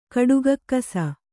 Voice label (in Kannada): "ಕಡುಗಕ್ಕಸ", Kannada